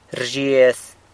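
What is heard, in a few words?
"rzhiez", San Lucas Quiaviní Zapotec; laughs; smiles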